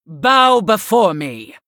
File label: Human Emote Attack